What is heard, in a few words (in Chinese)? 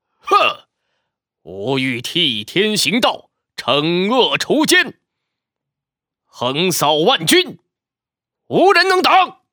干音; 金刚